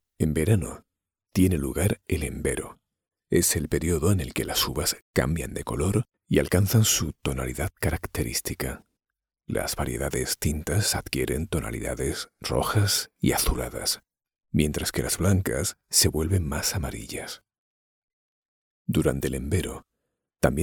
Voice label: spanish narration